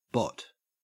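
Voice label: "bot", Australian English; pronunciations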